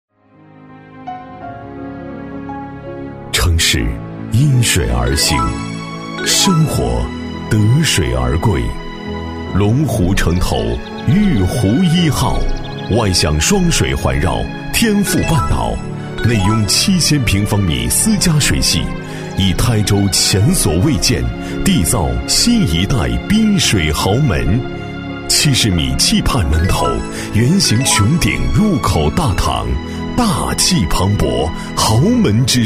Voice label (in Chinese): 男, 广告 房产; 年轻浑厚 高端大气; 龙湖城投·昱湖壹号